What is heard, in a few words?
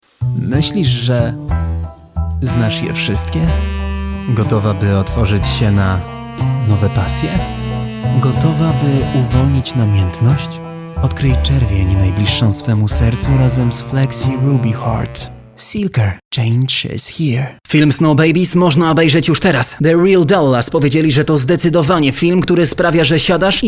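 Polish, male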